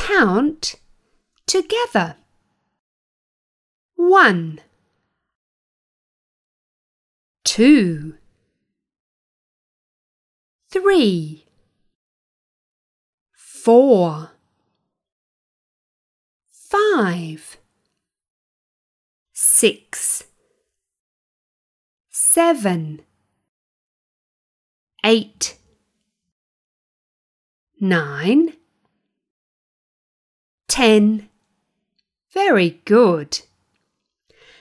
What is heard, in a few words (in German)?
englisch uebung listen point and say